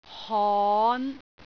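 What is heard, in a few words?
"hon5", Cantonese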